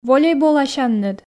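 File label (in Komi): "волейболасянныд", Komi, female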